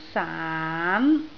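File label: "saan2", Cantonese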